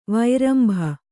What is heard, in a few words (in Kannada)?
"ವೈರಂಭ", Kannada